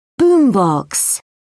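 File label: "boom box", British English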